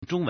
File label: "zhong1 wen2", Chinese